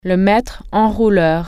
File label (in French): "tape measure", French